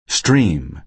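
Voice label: "stream", American English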